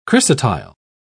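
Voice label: "chrysotile", British English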